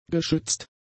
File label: "geschützt", German; adjectives